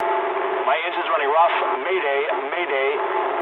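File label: damaged engine mood low, speech English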